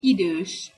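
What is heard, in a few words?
"idos", Hungarian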